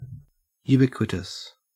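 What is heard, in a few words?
"ubiquitous", Australian English; pronunciations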